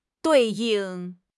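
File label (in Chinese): "对应", Mandarin Chinese; HSK6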